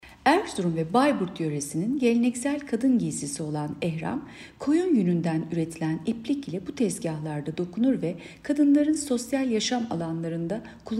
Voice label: turkce